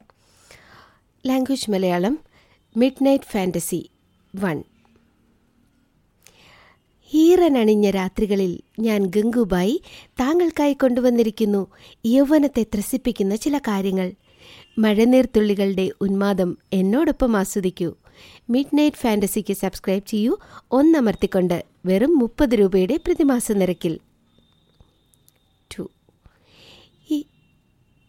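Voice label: Malayalam, female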